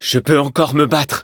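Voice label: defeat, French; cookie run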